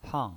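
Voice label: "hang", Mandarin Chinese, fourth tone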